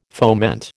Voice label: "foment", American